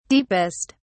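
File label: "deepest", British English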